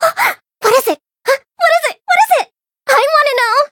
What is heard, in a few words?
blue slushy menu idle, eng